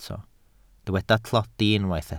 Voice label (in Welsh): "tlodi", Welsh